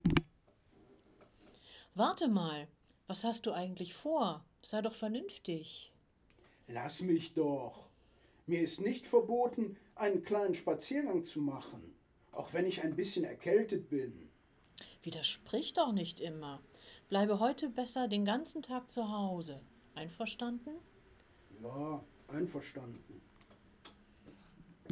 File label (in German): Dialog Zu Hause